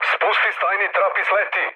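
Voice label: Serbian bailout mood low, speech; War Thunder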